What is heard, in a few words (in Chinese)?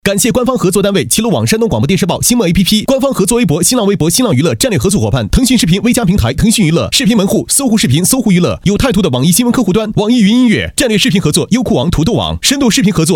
宣传 语速超快, 国语男声配音; 山东卫视中国星力量